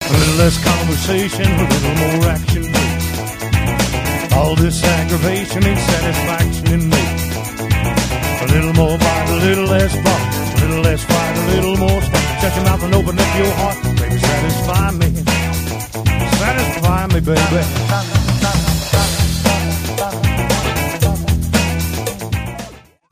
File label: Conversation Clip